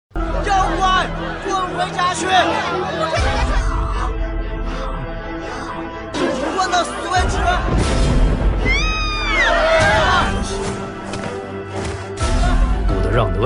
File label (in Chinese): 正太音; 正太音哪吒部分东坡肉